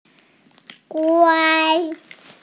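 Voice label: "guai1", Mandarin Chinese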